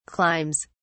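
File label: "climbs", British English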